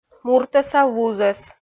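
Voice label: "муртӧса вузӧс", Komi, female; kpv audio dict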